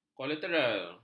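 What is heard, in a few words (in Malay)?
"kolateral", Malay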